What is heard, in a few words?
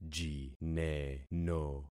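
"jineno", concatenated syllables; Affixing experiment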